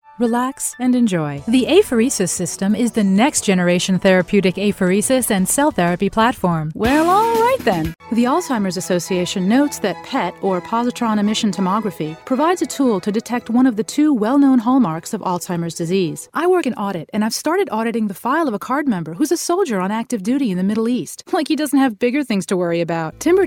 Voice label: ENGLISH, voice talent; Toronto Canada